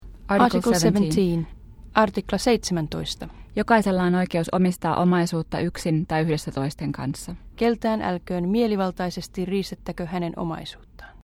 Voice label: Finnish

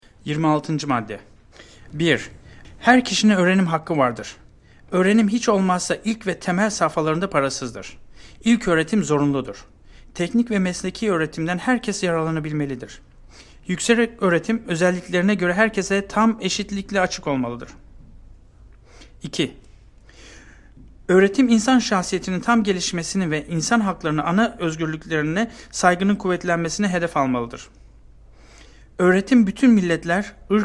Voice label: Turkish

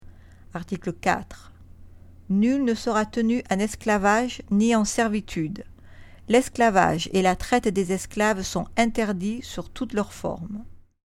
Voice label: French

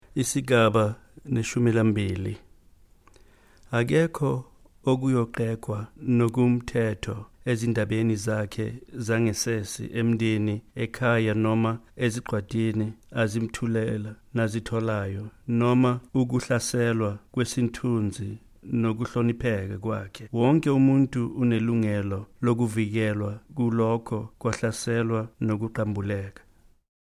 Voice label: Zulu

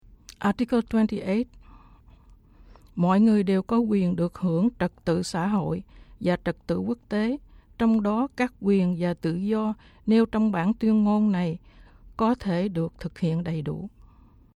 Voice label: Vietnamese

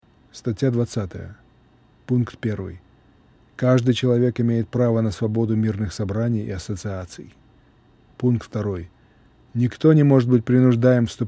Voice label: Russian

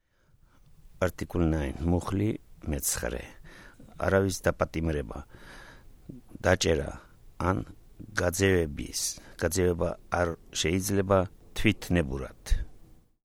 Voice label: Georgian

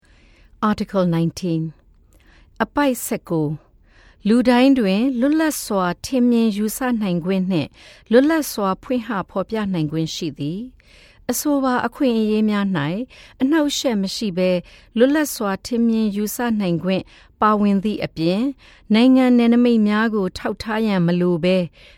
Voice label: Burmese

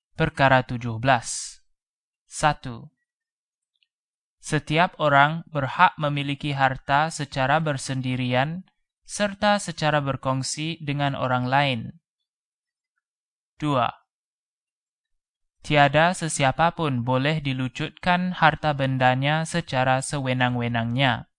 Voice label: Malay

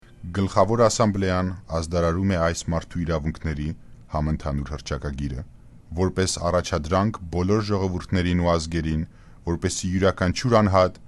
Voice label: Armenian

